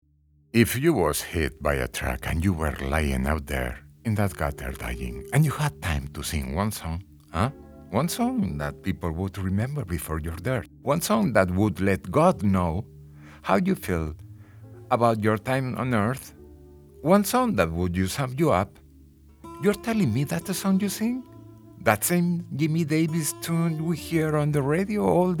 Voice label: male spanish castilian english with spanish accent acting narration